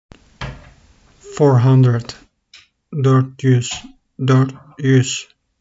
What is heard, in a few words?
"four hundred 400", Turkish; the talking turkish phrasebook